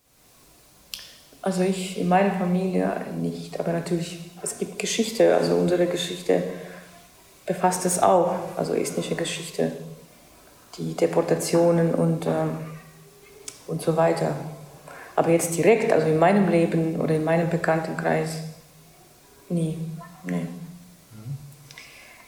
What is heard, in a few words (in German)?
estnisch